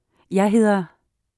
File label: my name is, danish